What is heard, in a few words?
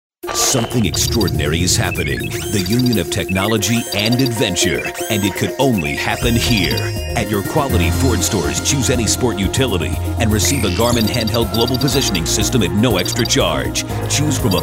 commercial